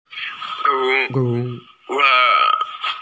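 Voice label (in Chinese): "bo1 luo2" Chinese, female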